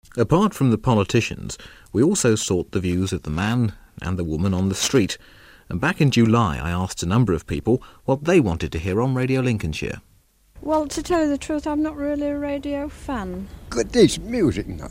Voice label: vox pop